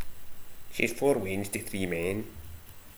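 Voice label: "weans", slang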